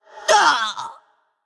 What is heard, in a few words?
Female Blood Elf Undead Death